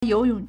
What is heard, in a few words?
"you2 yong3", Chinese